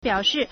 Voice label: "biao3shi4", Chinese

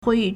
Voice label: "hui4 yi4", Chinese

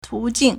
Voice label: "tu2jing4", Chinese

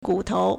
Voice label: "gu3tou2", Chinese

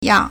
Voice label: "yang4", Chinese

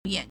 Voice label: "yan3", Chinese

